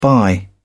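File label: "bye", English, British English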